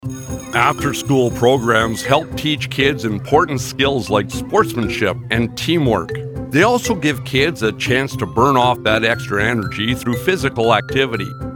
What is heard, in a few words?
Narrative